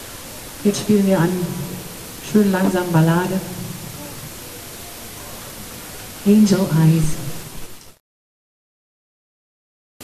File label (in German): Ansage; Konzert Summer In The City (Place D’Armes, LU-Zentrum)